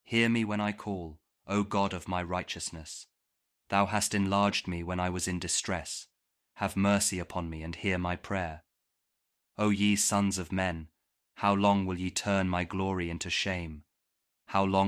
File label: psalm kjv king james audio bible; psalm 4